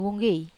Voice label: "wonggei", Wamesa